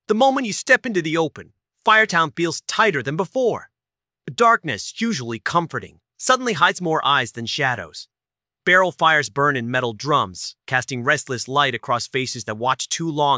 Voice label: read aloud; fleeing from firetown